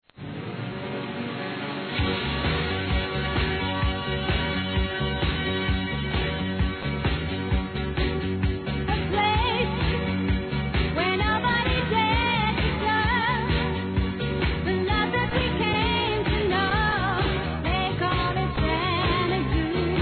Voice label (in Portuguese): QUE DEUS LHE CONCEDA A PAZ (F); Aniversários; Aniversários diversos; fem